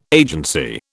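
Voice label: "agency", British English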